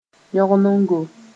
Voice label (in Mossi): "yõgnego", moore